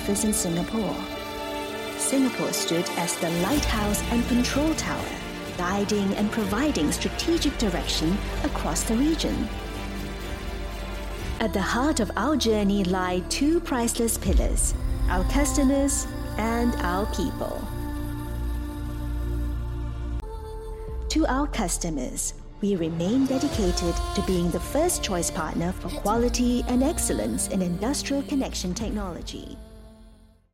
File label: Corporate Narration (APAC) Optimistic Brand Anthem, Professional Storyteller, Female VO